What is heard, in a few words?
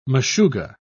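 "meshugga", British English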